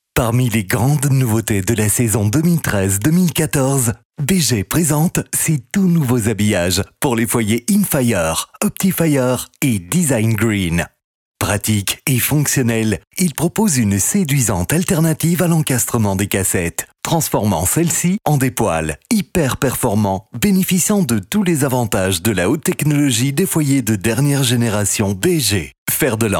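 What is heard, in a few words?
French France, male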